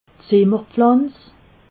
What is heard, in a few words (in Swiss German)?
"zimmerpflanz", alsace